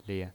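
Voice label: "lie", pinyin, Mandarin Chinese, neutral tone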